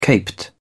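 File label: "caped", British English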